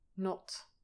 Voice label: "not", english pronunciation